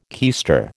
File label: "keister", American